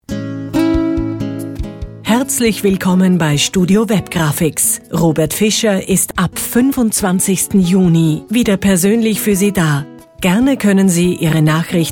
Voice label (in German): Abwesend, telebutler